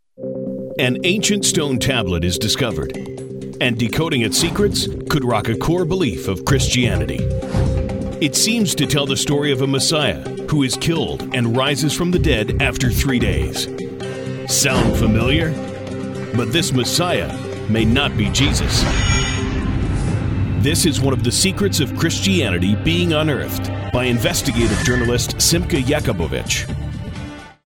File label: male canadian voice over narrative